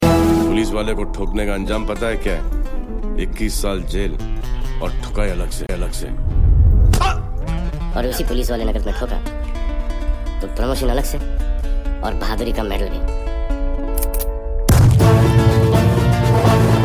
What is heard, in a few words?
Funny; Dabangg